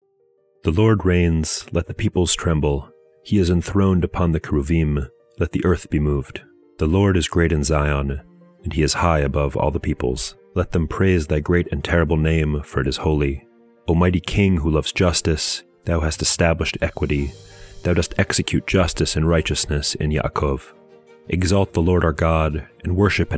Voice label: poetry and prayer psalm english